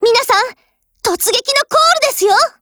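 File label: ATTACK, Japanese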